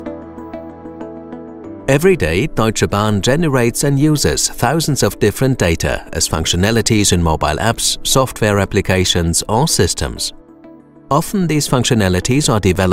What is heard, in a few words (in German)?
sprecher englisch